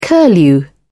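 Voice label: "curlew", British English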